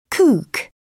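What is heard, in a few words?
"kook", English, British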